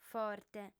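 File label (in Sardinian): "forte", female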